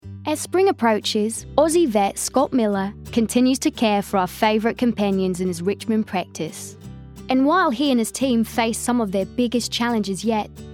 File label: promo